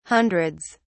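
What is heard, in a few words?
"hundreds", English, British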